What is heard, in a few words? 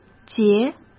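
"截", Mandarin Chinese; chinese flashcards; hsk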